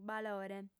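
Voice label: "balore", lingua sarda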